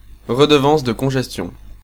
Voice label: Charge, French, male